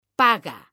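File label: "paga", Spanish, female; mekanta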